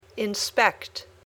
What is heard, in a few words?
"inspect", English